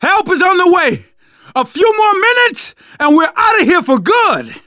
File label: radio used generic; Left 4 Dead 2; left4dead2 dlc3